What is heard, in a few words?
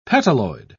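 "petaloid", British English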